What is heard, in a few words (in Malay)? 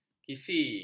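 "kisi", Malay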